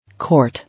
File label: "Court" English